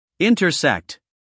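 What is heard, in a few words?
"intersect", American English, male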